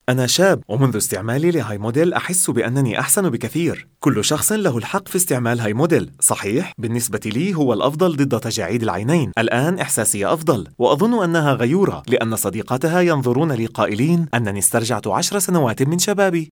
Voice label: arabic male